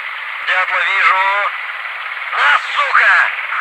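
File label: attack, russian; svencoop; russian sounds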